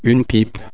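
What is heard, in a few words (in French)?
"pipe", French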